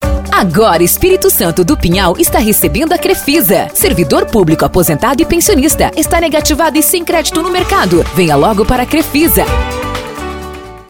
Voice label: vinheta locutor voz impacto grave vinheta abertura; Vinheta para emprestimo para servidor publico; spot chamada comercial propaganda anuncio

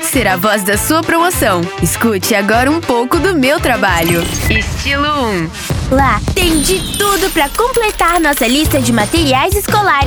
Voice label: infantil

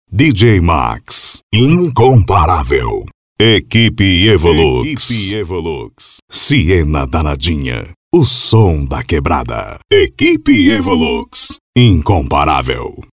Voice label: voz do locutor vinhetas de dj

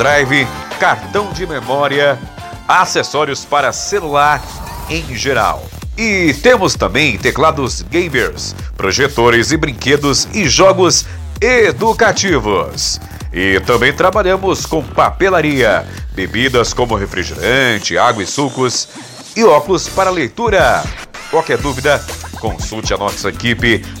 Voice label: locutor propaganda produtos utilidades

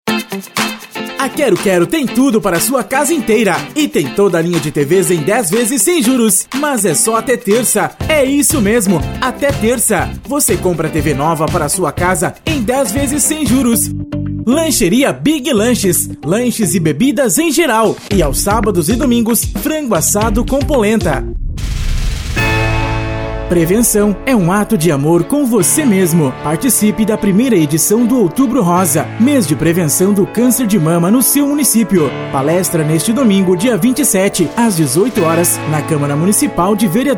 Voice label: locutor

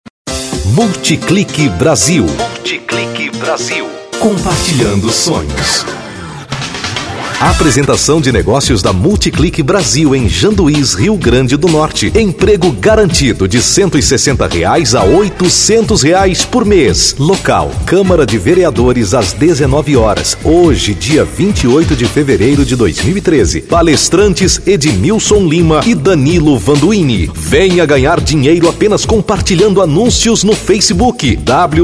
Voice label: spot; Multiclick Brasil

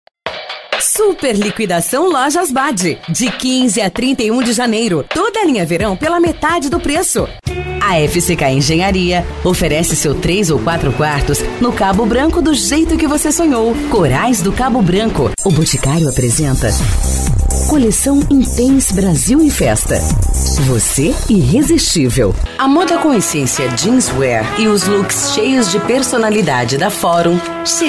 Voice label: locutora produção de offs vinhetas spots comerciais

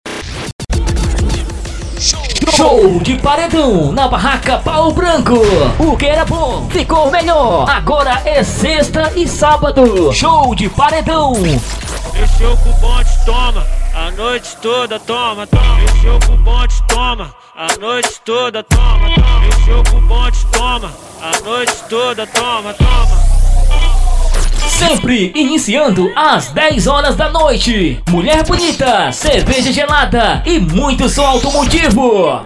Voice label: vinheta; audio som studio producoes

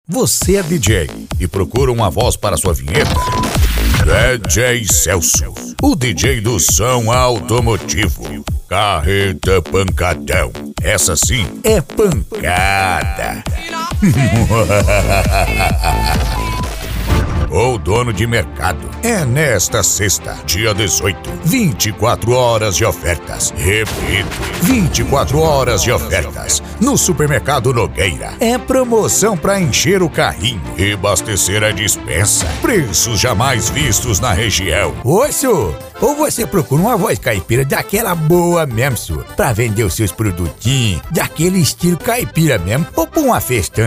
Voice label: locutor voz impacto locutor voz grave locutor voz grossa locutor de rodeio

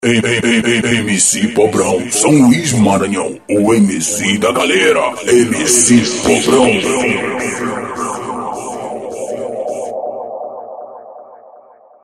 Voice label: vinheta; estudio de gravacao cg mrproducoes

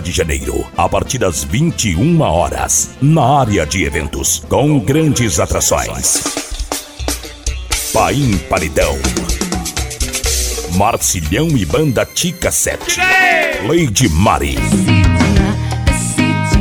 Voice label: chamada esquenta carnaval; divisa alegre

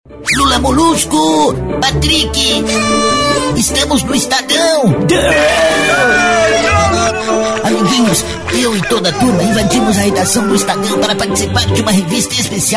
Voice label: Spot comercial

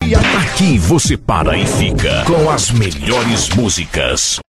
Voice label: vinheta

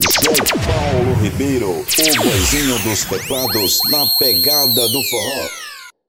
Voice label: locucao abertura

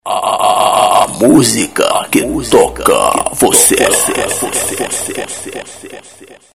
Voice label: vinheta; estudio de gravacao cg mrproducoes

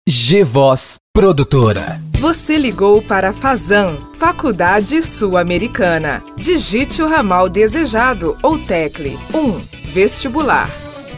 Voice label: voz locutora, Espera Telefônica

